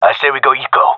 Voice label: request eco, gendarmerie male